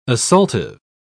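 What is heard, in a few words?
"assaultive", British English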